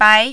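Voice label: "bai" tone 2, Mandarin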